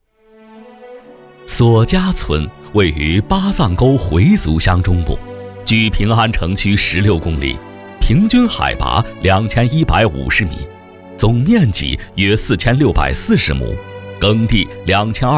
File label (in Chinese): 男, 专题片; 索家村